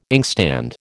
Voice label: "inkstand", American